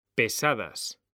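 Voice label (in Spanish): "PESADAS", Spanish; mekanta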